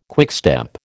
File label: "quickstep", American